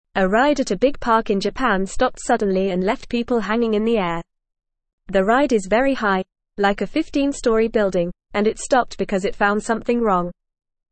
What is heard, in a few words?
English Lower Intermediate FAST Reading; The Flying Dinosaur Ride Stops High Up; English Newsroom